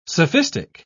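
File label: "sophistic", British English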